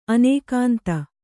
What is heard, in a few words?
"ಅನುಕಾಂತ", Kannada